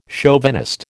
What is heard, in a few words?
"chauvinist", American